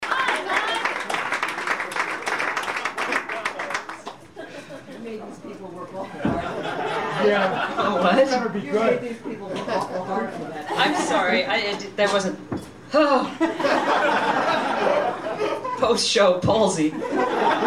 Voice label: Live Patter, Tin Angel